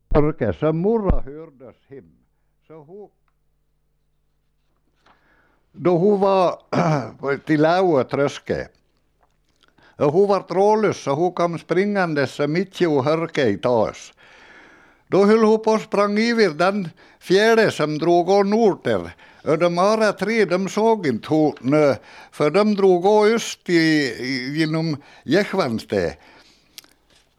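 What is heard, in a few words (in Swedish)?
Nås Dalarna, dialekter; Folkminnen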